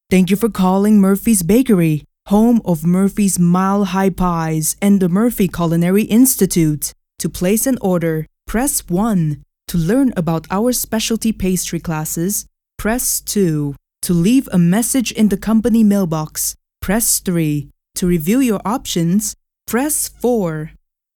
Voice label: vo ivr